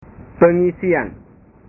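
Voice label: "pengisian", Indonesian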